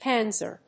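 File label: "panzer", English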